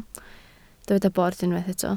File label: "bord", Welsh